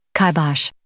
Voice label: "kibosh", English

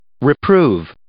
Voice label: "reprove", English

